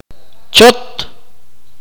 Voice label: "sit", Akateko

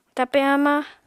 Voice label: "tape hamañ", apatani

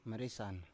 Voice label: "maresan", Biak

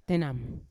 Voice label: "tenam" one hundred, Yawa

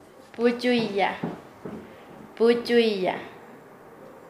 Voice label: "reunirse", Nasa Yuwe; paez

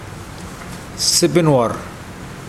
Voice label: Mokilese neck

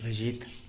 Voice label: "bezhit", tlacochahuaya; wild cat; animals

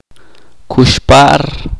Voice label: "nacer", chorti

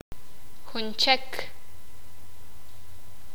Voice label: sakapulteko; otro distinto

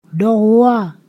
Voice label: "two", Ponosakan

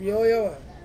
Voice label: "mioya va", bad, Ansus

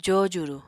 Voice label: "jojuru", Apatani

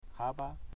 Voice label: "five", Foe

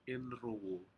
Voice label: "inrowuh", Aneityum; fish

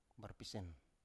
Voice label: "barpisen" Biak

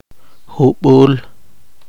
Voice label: poqomchi

